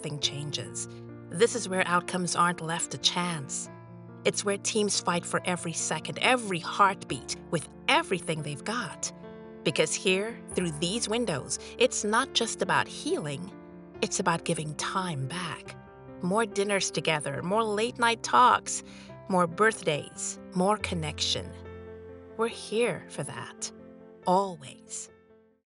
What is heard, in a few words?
MUSIC Online Ad Hospital